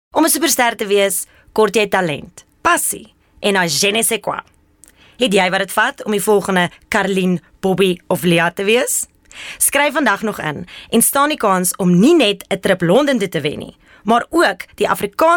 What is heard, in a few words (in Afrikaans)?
Afrikaans Promo